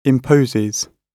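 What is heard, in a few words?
"imposes", British English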